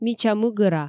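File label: "мича мыгӧра", Komi, female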